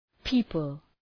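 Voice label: "people", English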